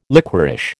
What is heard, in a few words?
"liquorish", American